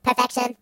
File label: "Perfect", robot voice; hedgewars